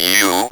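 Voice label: robot voice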